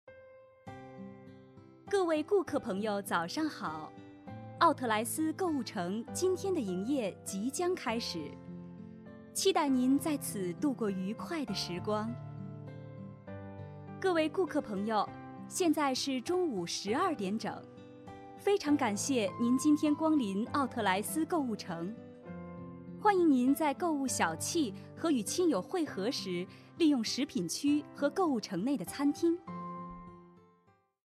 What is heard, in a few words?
announce